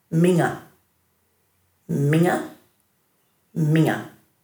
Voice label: "minga", Dhurga